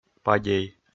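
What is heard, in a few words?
"падей", Komi, male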